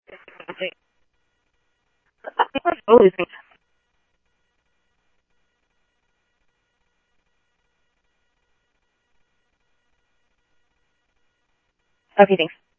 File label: Police, scanner; H-Scottsdale; ScottsdalePolice